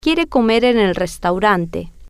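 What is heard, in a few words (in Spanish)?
Quiere Comer Restaurante, spanish, female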